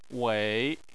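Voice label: "wei", Chinese, third tone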